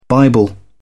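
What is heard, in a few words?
"bible", British English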